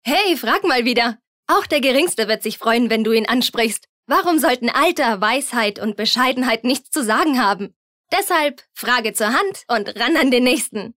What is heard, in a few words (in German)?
Werbung Dynamisch